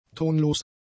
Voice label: "tonlos", German; adjectives

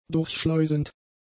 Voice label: "durchschleusend", german; adjectives

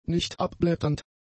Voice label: "nichtabblätternd", German; adjectives

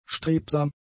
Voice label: "strebsam", German; adjectives

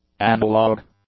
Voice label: "analog", English; nouns

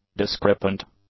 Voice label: "discrepant", English; adjectives

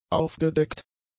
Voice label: "aufgedeckt", German; adjectives

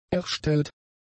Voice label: "erstellt", German; adjectives

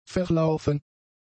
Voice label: "verlaufen", German; adjectives

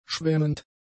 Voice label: "schwemmend", German; adjectives

